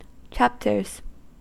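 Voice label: "chapters", US English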